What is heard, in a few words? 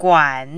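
"guan", third tone, Mandarin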